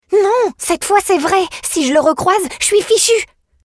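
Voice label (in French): E-learning, Comédienne, Voix off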